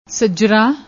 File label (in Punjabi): "sajra", Punjabi; ਸੱਜਰਾ